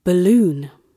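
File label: "balloon" British English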